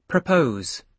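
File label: "propose", British English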